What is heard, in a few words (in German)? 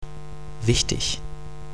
"wichtig", pronunciation